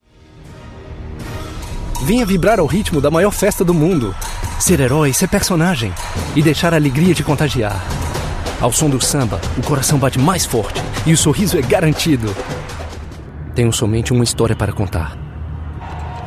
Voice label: Braz Port